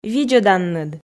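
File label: "видзӧданныд", Komi, female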